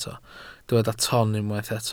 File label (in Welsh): "ton", Welsh